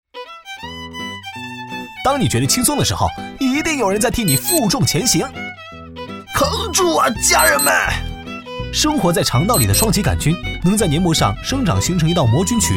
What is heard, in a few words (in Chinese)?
男 MG 轻快 吐槽